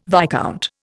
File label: "viscount", Indian